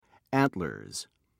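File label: "antlers", British English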